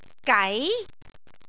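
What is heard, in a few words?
"gai2", Cantonese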